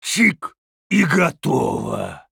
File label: Male Troll Attack